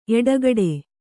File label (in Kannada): "ಎಡಗಡೆ", Kannada